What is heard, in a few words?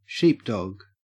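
"sheepdog", Australian English; pronunciations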